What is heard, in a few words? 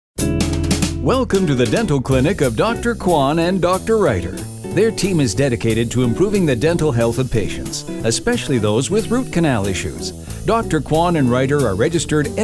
On Hold Medical Professionals